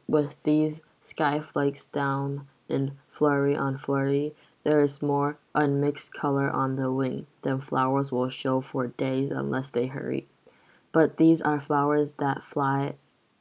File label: english poem